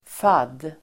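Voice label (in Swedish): "fadd", Swedish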